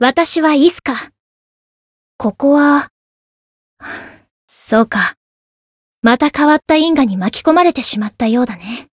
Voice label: story jp; isuka